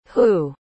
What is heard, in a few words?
"hoo", pronounce